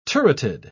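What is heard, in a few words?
"turreted", British English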